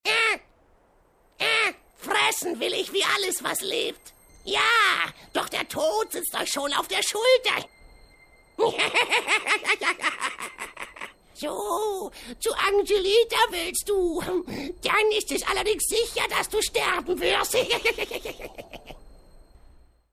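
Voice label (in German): cartoon geier, sprecher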